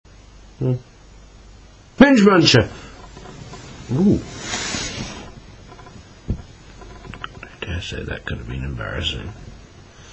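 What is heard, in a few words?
clucking reveal, English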